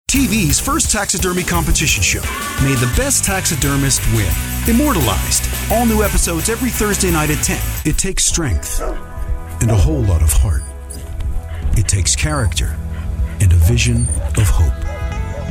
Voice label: PROMO